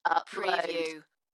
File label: UK female, Upload, Dalekness; beanstalk audio